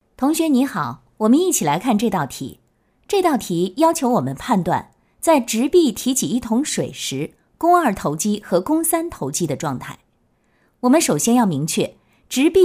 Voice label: 女 生物课件成品（正式）

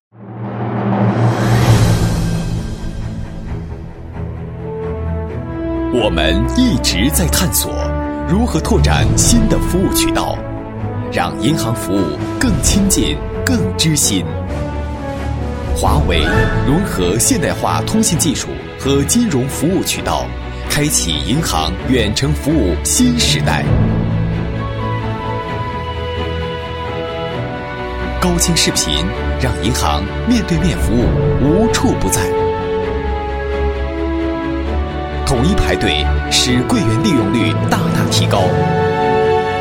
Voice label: 男 宣传片（年轻）; 实惠年轻; 华为宣传片

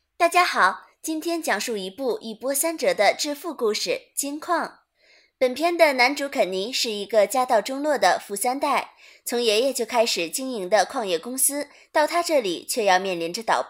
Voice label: 女 电影解说 适合抖音（叙述）

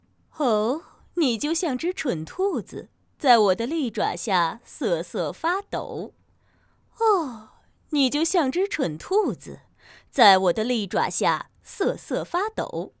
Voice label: 女; 蠢兔子

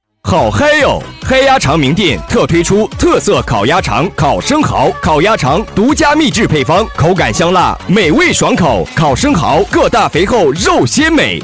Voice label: 男 【促销】烤鸭肠

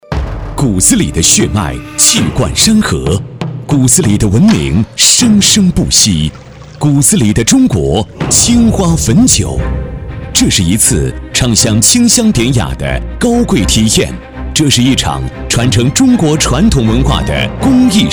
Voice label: 男 激情; 青花汾酒

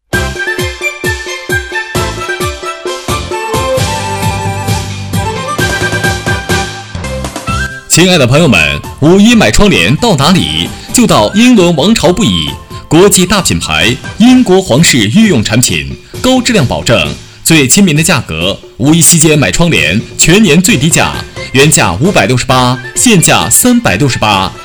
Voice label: 男 窗帘促销（年轻）

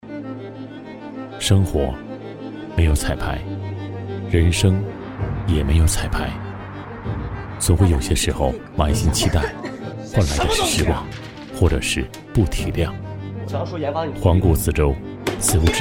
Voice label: 男22 生活

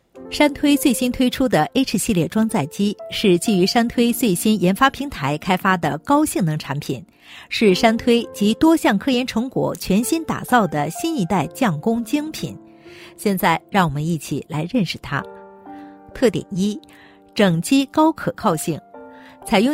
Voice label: 女 山推新品产品介绍（自然）; 成熟

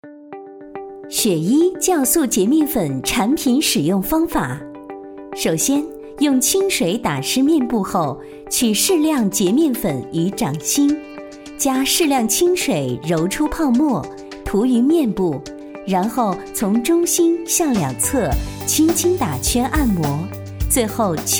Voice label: 女 洁面粉使用方法（甜美知性）; 雪伊酵素洁面粉使用方法

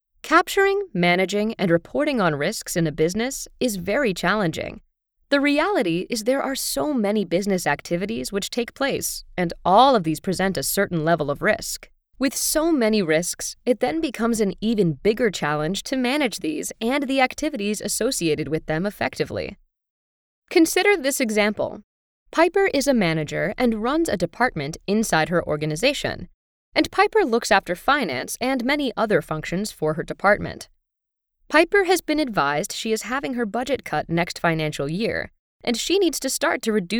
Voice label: 外籍女 专题

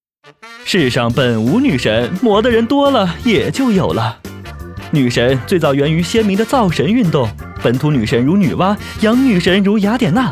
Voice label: 男 女神起源

